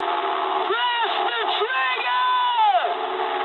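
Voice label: English attack position mood high; speech; War Thunder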